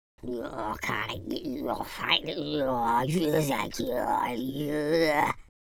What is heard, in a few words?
angry